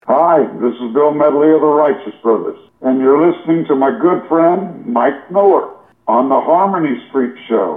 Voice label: promo